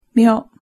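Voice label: "myo", Japanese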